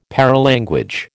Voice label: "paralanguage", American